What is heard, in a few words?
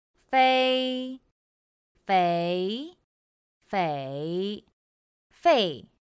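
"fēi féi fěi fèi", Chinese; pinyin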